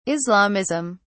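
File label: "islamism", British English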